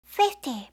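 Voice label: "fifty", English; eng suuji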